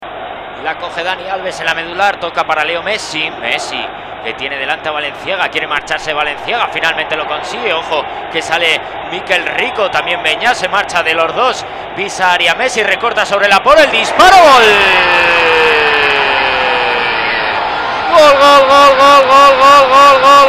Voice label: Spanish Commentary; Messi